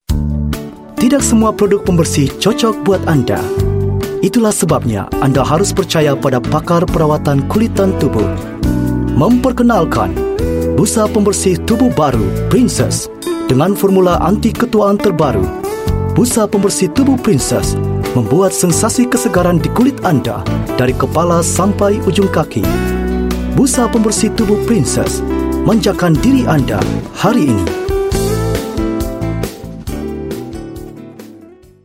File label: Bahasa Indonesia TVC